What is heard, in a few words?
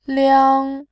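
"liang1", Mandarin Chinese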